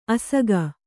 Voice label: "ಅಸಗ", kannada

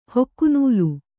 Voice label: "ಹೊಕ್ಕುನೂಲು", Kannada

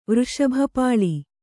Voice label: "ವೃಷಭಪಾಳಿ", Kannada

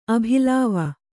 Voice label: "ಅಭಿಲಾವ", kannada

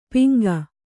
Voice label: "ಪಿಂಗ", Kannada; piŋga; noun; the reddish brown colour; wild-pigeon; rock pigeon; rock dove

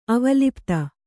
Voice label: "ಅವಲಿಪ್ತ", Kannada; avalipta; smeared or anointed with.

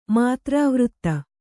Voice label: "ಮಾತ್ರಾವೃತ್ತ", Kannada

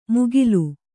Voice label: "ಮುಗಿಲು", Kannada

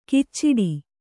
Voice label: "ಕಿಚ್ಚಿಡಿ", Kannada

